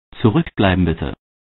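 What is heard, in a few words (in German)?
berliner u bahn ansage zurückbleiben bitte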